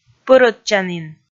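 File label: "пӧрӧдчанін", Komi, female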